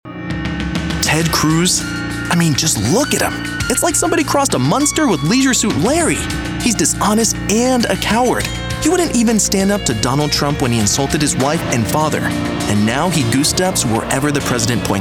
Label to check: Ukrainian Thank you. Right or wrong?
Wrong